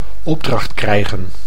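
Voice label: "opdracht krijgen", Dutch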